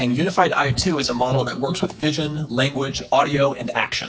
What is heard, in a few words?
man